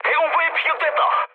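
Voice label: Korean damaged AA mood medium; speech; War Thunder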